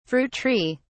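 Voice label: "fruit tree", British English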